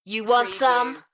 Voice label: UK female You want some; beanstalk audio